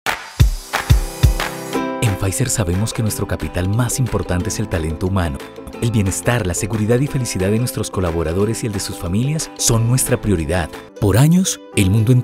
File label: spanish latin american corporate videos